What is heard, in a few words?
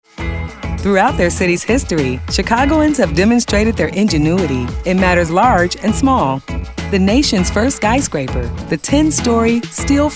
narration conversational